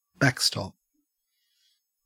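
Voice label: "backstop" Australian English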